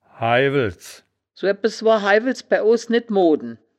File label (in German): "haiwels", wittlicher woerterbuch; das wittlicher woerterbuch; woerterbuch vertonung